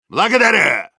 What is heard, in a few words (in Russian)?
Soldier thanks ru; «Благодарю!»; голосовых команд солдата